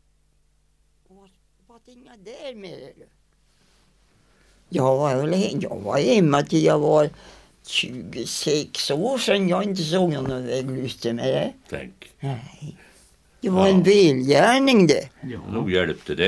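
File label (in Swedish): Väddö Uppland, dialekter; Svenska sagor; Folkminnen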